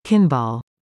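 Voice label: "Kin Ball", English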